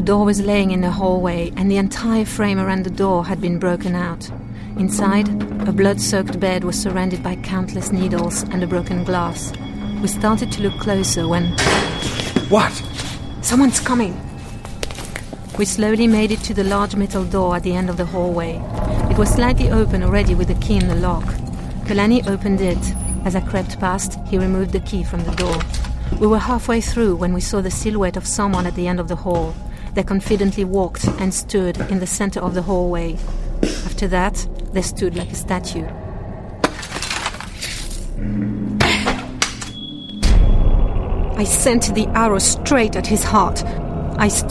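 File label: French English, female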